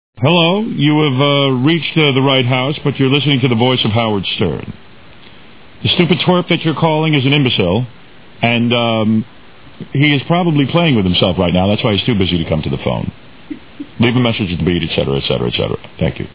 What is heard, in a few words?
answering machine